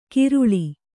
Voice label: "ಕಿರುಳಿ", Kannada

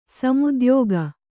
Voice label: "ಸಮುದ್ಯೋಗ", Kannada

